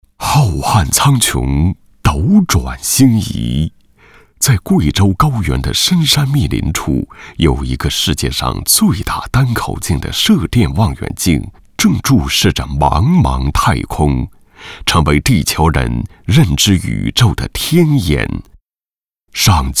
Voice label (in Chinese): 男 人博会宣会片